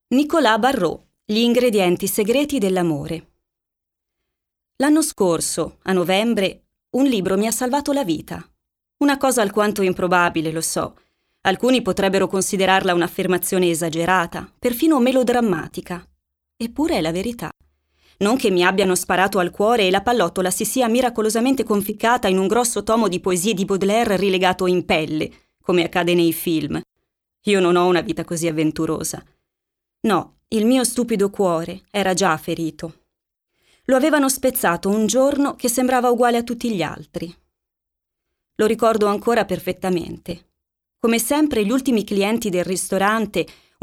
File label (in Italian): audioibri